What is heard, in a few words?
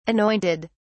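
"anointed", British English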